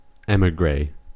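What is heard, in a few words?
"emigre", US English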